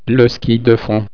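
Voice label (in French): "ski fond", French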